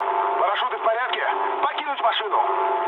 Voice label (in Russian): bailout, mood low, speech ru; War Thunder